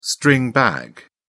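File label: "string bag", British English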